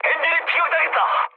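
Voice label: Korean damaged engine mood med; War Thunder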